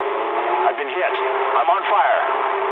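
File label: English on fire mood low; War Thunder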